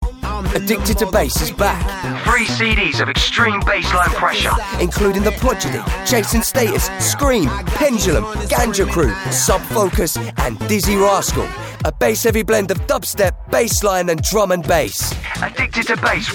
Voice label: advert